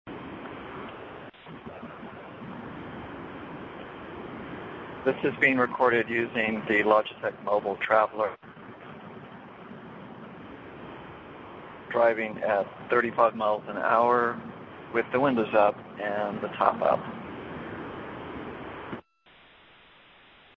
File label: Logitech Mobile Traveller 35mph closed, cell phone headsets